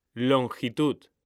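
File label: "longitud", Spanish, male; mekanta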